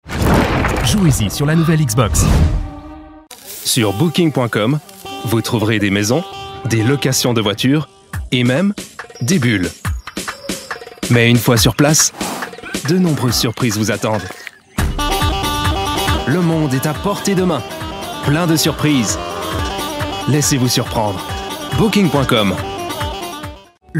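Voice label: french male commercials, Genuine Natural French Voiceover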